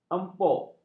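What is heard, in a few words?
"empuk", Malay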